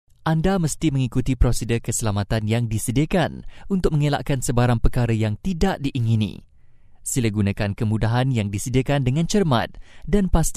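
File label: male, narration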